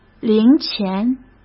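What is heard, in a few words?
"零钱", Mandarin Chinese; hsk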